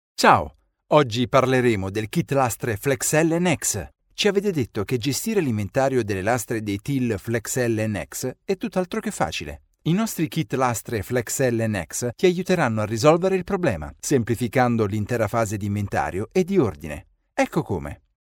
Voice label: italian e-learning

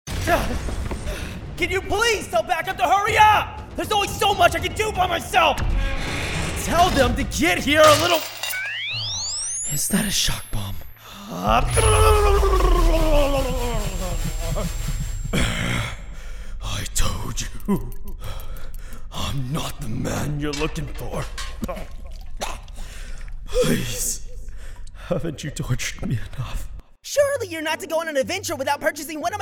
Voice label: english american video games

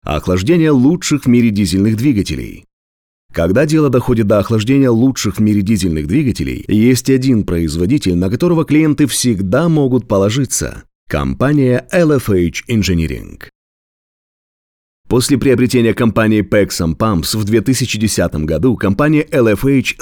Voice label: russian audiobooks

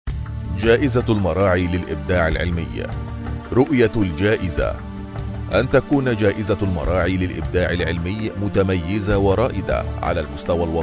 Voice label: arabic corporate videos

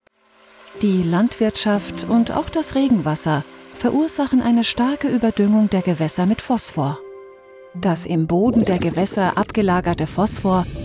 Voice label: german documentaries

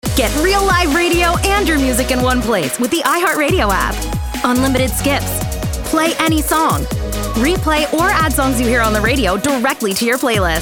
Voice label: english american radio imaging

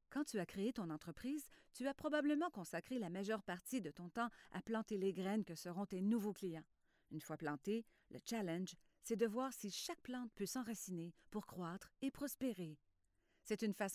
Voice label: french canadian explainer videos

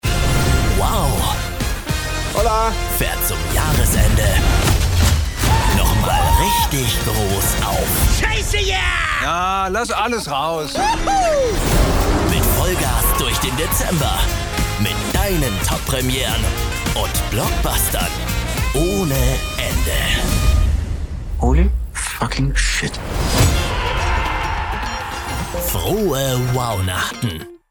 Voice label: german commercial; Deutsch; Deutschland; deutscher Synchronsprecher

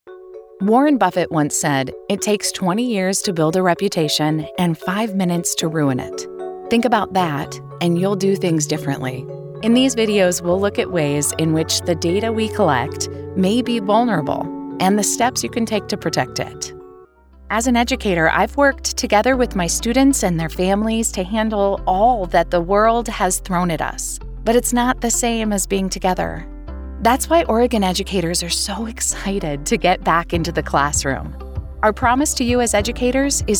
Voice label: english american e-learning

